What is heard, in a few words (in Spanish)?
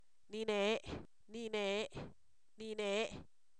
este, Zapoteco, san miguel albarradas; INALI